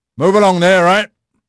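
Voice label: English male; Pirates Of The Caribbean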